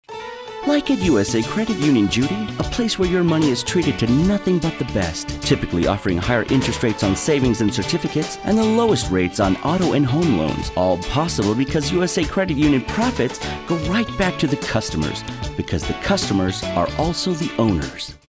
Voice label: informative